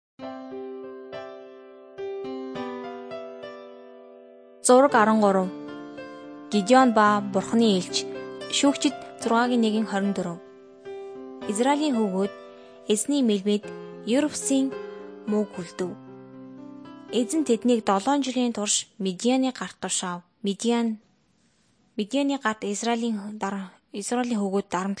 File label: mongolian